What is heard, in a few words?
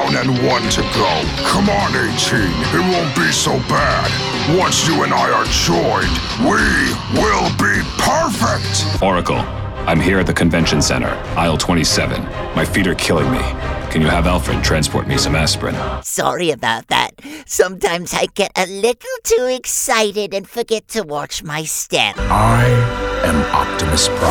VO Men Video Games; Get Reel